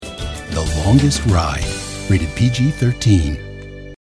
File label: Promo; LONGEST RIDE